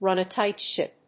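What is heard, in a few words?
"run a tight ship", English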